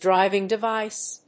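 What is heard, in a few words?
"DRIVING DEVICE", English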